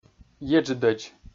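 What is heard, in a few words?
"еджыдӧдз", Komi, male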